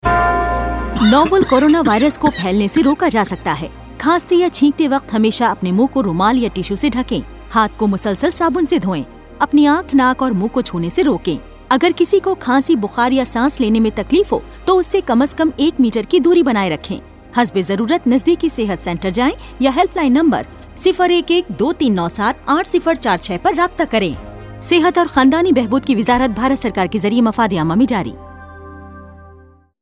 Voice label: Cough Radio Urdu